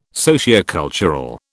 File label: "sociocultural", British